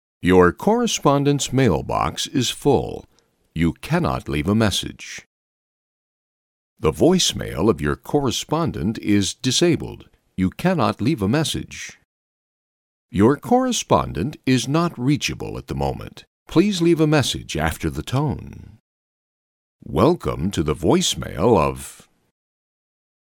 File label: american male; dictor; dictor-sounds